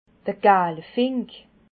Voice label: "gaalfink", Alsace

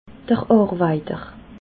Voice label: "arweiter", alsace

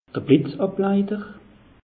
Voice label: "blitzableiter", alsace; meteo

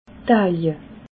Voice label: "dahlje", alsace

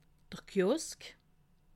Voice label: "kiosk", alsace

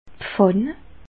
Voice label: "pfann", alsace

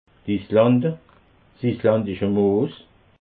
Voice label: "islande", alsace

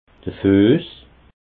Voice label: "fuess", alsace

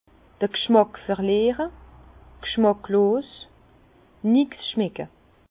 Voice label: "gschmack", Bas Rhin; vin; agueusie

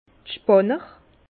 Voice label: "spanner", Bas Rhin; vin; tendeurs

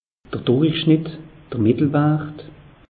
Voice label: "durichschnitt", alsace; meteo

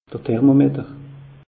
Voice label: "thermometer", alsace; meteo

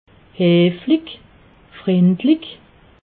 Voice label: "heflich", alsace

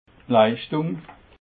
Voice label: "leischdung", alsace

